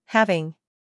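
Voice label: "humor", British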